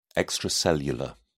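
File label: "extracellular", British English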